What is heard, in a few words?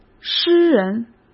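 "诗人", Mandarin Chinese; hsk; chinese flashcards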